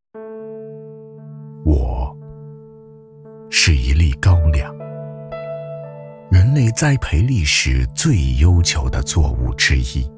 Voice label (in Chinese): 男 旁白 老酒是怎样生成的 拟人化讲述